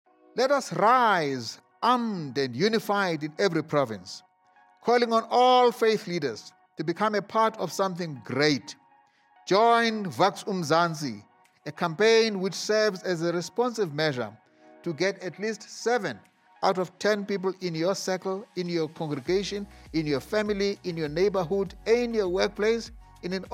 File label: Radio Ad; entire campaign